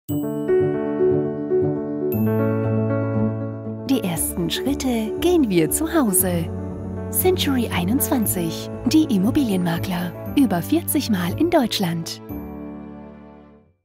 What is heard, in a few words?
Advert